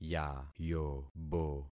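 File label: "yayobo", concatenated syllables; Affixing experiment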